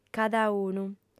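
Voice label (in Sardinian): "cadaunu", female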